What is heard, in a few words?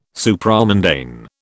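"Supramundane", British English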